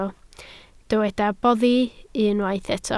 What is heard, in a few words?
"boddi", Welsh